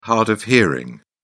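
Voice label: "hard-of-hearing", British English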